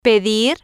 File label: "pedir", spanish